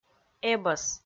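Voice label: "эбӧс", Komi, female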